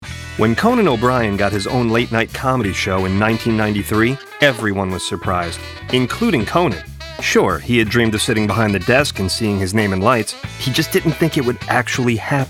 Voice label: Narration